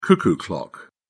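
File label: "cuckoo clock", British English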